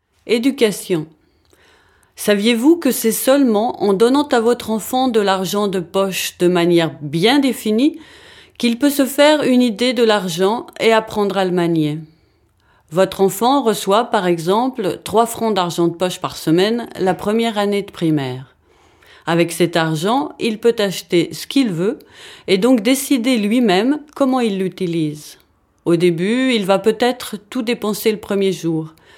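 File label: French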